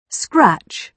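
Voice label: "scratch", British English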